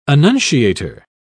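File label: "annunciator", British English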